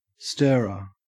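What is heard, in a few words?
"stirrer", Australian English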